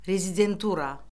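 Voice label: "rezidentura", Azerbaijani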